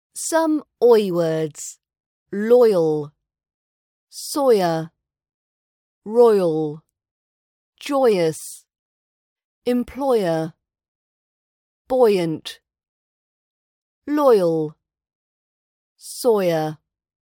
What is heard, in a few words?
oy words RP British accent pronunciation practice